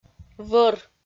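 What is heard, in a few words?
"вӧр", Komi, female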